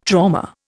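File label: "trauma", Indian English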